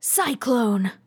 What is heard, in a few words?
cyclone, RPG Voice; RPG Voice Starter Pack